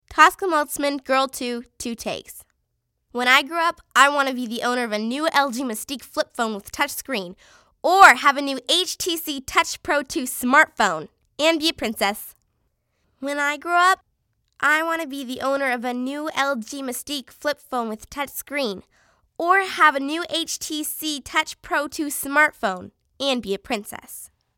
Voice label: kids commercial; Tween-mid teens. Super sweet with a tinge of attitude.